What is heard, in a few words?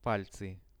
"palcy", Russian; пальцы; Это пальцы.; visual dictionary